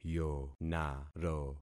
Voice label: "yonaro", concat syllables; Affixing experiment